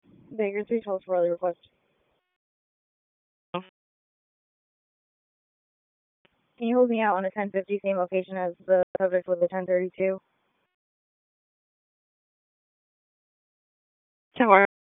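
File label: Raleigh Police Northeast District Dispatch, p25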